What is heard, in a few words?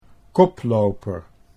"koploper", Dutch